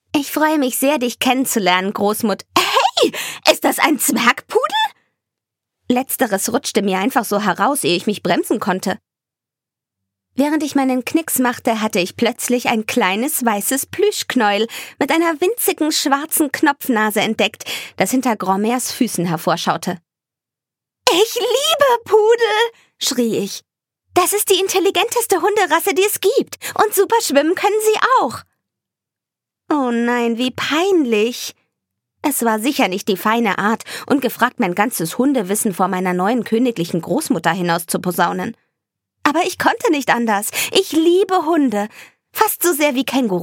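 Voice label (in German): acting, Aufgeregt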